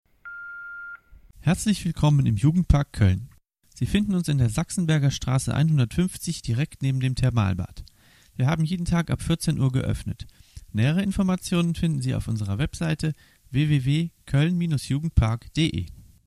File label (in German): anrufbeantworter; allerweltshaus